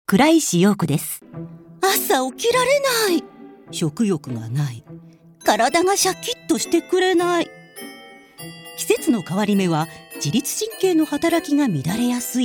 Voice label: female tv narration